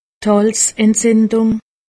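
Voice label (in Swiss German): "halzentzindung", Alsatian; medecine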